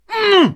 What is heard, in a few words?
hurt, pain; player; Dystopia